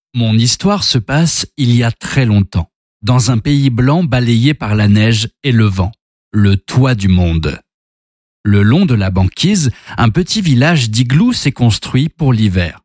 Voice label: Audio Book; voix générées par l’intelligence artificielle